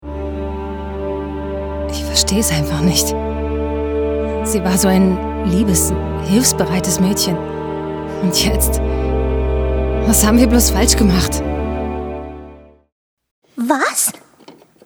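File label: German, female, character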